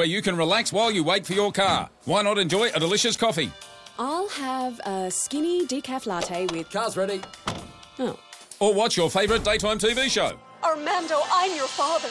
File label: auto repair ad; teamvodafone